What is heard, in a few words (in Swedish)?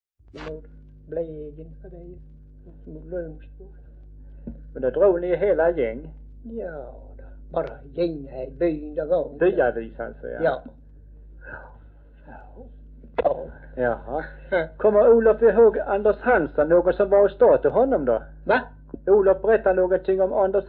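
Örkened Skåne, Svenska sagor dialekter; Folkminnen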